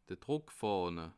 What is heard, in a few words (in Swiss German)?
"druckfahne", alsace